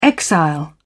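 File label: "exile", British English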